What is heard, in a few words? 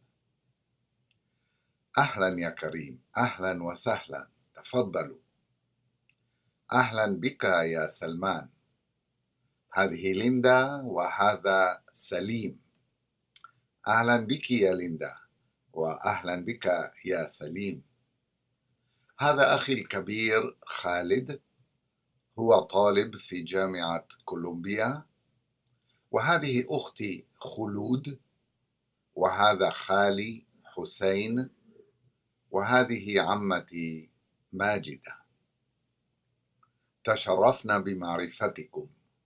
Conversation; SALAMAAT